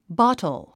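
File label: "bottle", English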